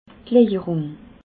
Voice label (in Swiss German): "lajerung", alsace